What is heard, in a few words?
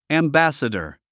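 "ambassador", English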